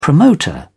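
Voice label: "promoter", British English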